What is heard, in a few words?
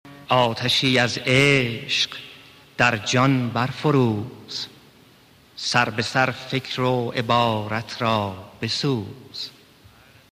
Tasnif (Deklameh)